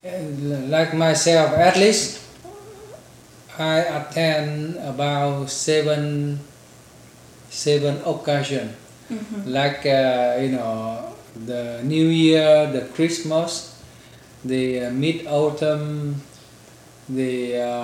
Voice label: Conversation with 39 year old male Virginia, american english dialect recordings